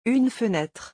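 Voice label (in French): "une fenêtre", French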